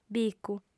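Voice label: "bicu", lingua sarda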